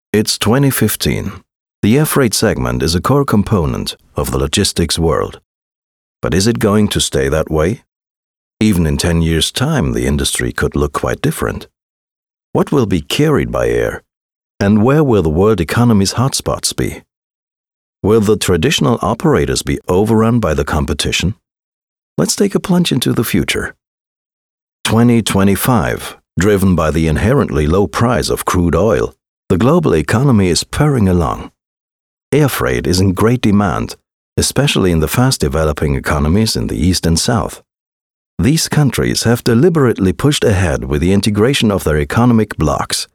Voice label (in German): aircargo englisch